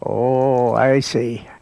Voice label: "oh i see", old man; Voice